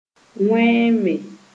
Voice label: "wẽeme", Moore

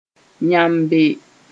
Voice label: "yãmbe", moore

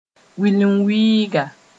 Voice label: "wilinwiiga", Moore

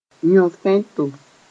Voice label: "yõ-fẽto", moore

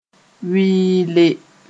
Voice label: "wɩɩle", moore

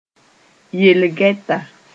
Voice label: "yell gɛta", moore

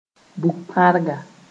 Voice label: "bug-pãrga", moore

